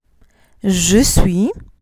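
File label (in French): "Je suis", French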